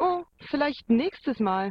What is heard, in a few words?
german voice; BALLY; CORVETTE